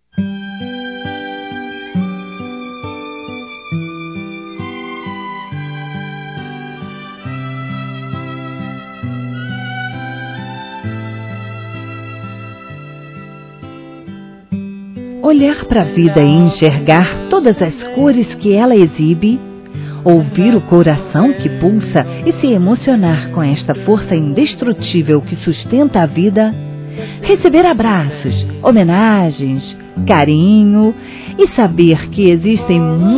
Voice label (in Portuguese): ANIV. DE UM FAMILIAR F